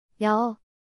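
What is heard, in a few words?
"ㅕ", Korean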